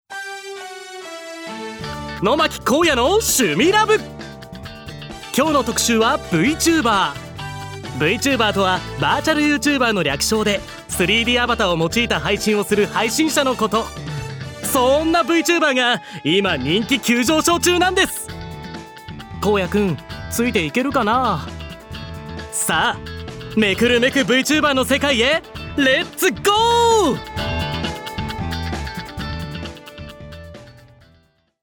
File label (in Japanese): ナレーション